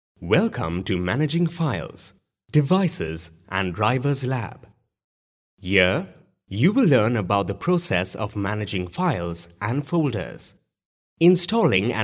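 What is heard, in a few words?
English Indian Male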